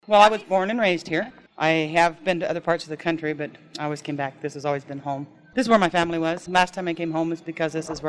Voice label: Idaho woman 60s monologue